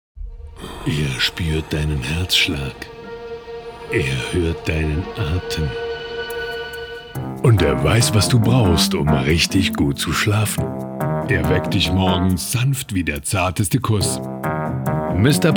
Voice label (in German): werbung; smartwecker